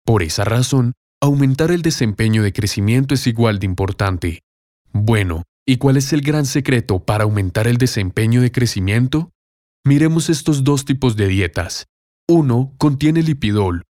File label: SPANISH